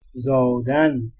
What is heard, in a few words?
"zadan", Persian